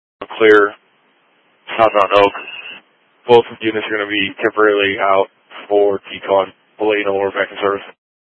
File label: Grand Junction Fire Department Dispatch